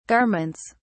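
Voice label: "garments", British English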